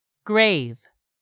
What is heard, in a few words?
"grave", English; 3000 words